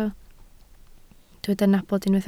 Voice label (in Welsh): "nabod", Welsh